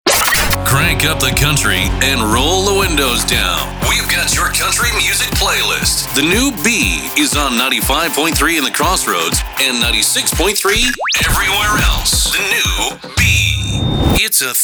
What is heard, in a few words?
Station Imaging